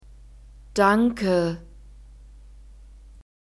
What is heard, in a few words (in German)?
"danke", German